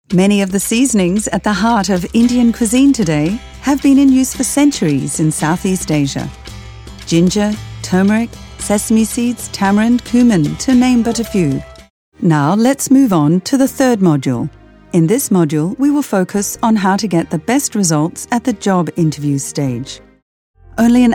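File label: Australian narration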